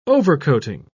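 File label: "overcoating", British English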